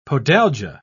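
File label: "podalgia", British English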